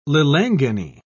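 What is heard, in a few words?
"lilangeni", British English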